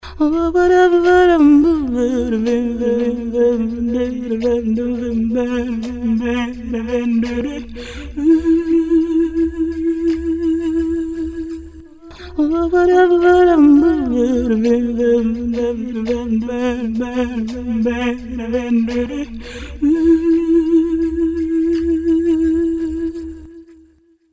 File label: voice, roland sde330